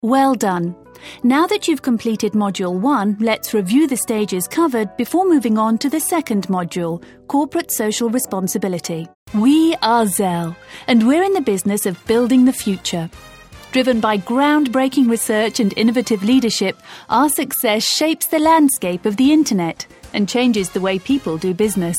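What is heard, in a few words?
British English, narration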